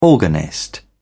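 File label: "organist", British English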